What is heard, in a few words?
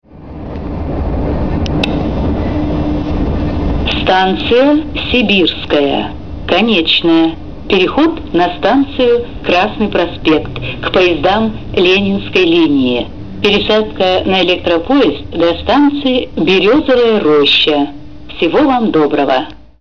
female